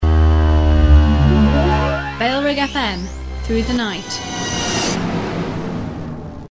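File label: female, reverse bed; bfm through the night; bailrigg fm; bfm jingles ads RSL 1994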